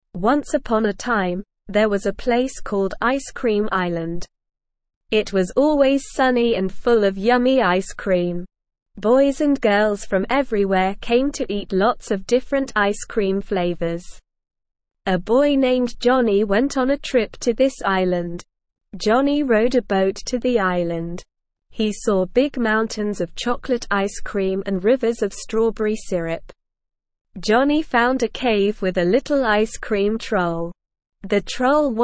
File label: ESL Short Stories for Kids Beginner SLOW Reading; The Ice Cream Island